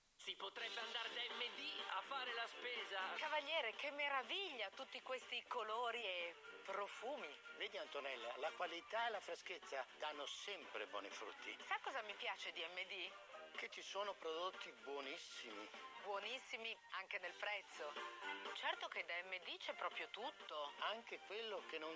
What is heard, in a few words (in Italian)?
pubblicita